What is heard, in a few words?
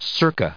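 "circa", US English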